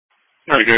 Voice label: EMS Dispatch

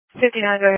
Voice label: Police Patrol 1 (Dispatch)

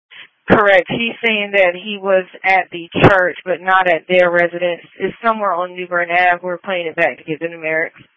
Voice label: Police Patrol 1 (Dispatch)

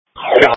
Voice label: Fire Tactical 01

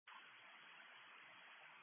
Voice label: Police Patrol 1 (Dispatch)

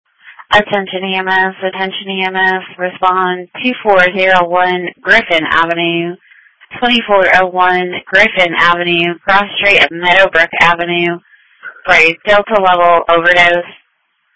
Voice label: EMS Dispatch

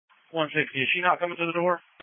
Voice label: Police Patrol 1 (Dispatch)

